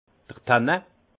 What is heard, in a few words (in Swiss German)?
"tannin", alsace; vin